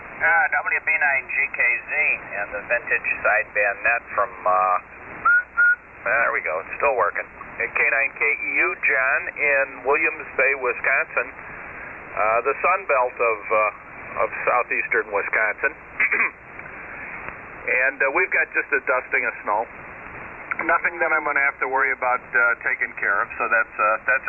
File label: Collins KWM-2; Williams Bay, WI.